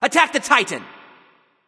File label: vox attack; smite; hou yi